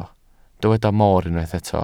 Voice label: "moor", Welsh

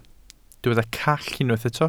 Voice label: "call", Welsh

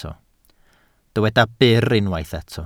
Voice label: "byr", Welsh

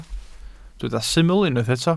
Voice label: "syml" Welsh

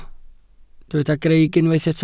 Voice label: "greic", Welsh

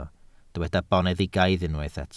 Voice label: "boneddigaidd", Welsh

